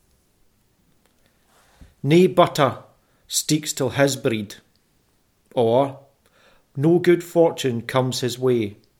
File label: "butter", Scots